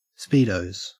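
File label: "speedos", Australian English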